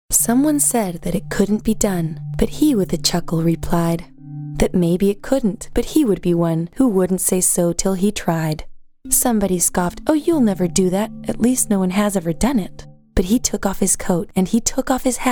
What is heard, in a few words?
VO NARRATION